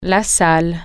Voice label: "room", french